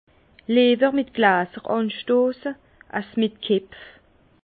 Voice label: "glasser", Bas Rhin; vin; plutôt trinquer avec des verres que de se cogner les têtes

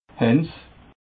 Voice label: "haendess", alsace

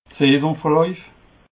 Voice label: "saison verlauf", alsace

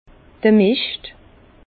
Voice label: "mischt", Bas Rhin; fumier; vin

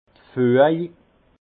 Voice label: "fueg", alsace; vin; jointage

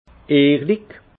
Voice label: "ehrlich", Alsace; vin; sincère

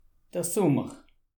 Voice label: "summer", alsace

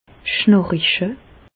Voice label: "schnariche", Bas Rhin; medecine; ronfler

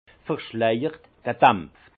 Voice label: "verschleijert", Alsace; vin; voilé

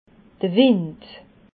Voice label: "wind", alsace

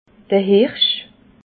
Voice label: "hirsch", alsace

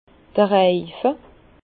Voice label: "reife", Bas Rhin; vin; cercle

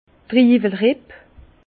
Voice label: "triiwel ripp", Bas Rhin; vin; rafle

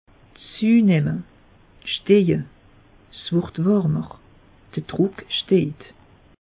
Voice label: "steije", alsace; meteo

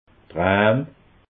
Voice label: "bram", alsace

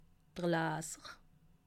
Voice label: "laser", alsace

